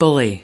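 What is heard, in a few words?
"bully", US English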